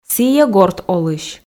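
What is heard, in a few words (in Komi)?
сійӧ горт олысь, Komi, female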